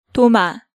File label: "Doma", Korean